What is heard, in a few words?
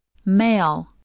"male", spelling